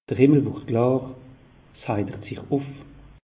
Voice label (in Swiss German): "himmel", alsace; meteo